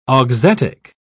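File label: "auxetic", English, British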